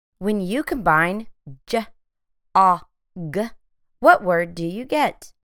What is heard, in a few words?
j ŏ g phonemic blending